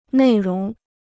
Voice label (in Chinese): "内容", Chinese